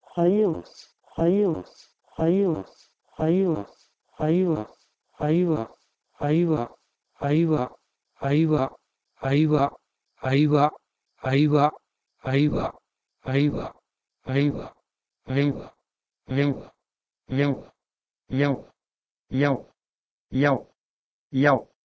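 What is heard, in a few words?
Proto-Indo-European h1oiwos to Pashto yau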